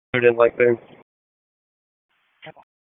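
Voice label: Police Southwest District Dispatch; Raleigh; p25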